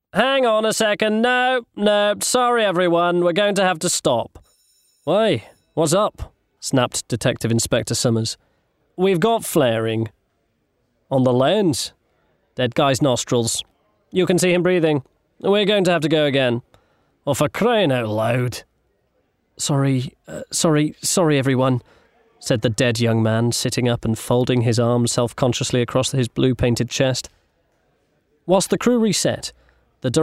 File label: AUDIOBOOK; The Understudy